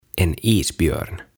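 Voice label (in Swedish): "En isbjörn", Swedish; Jääkarhu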